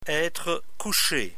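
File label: "etre couche", French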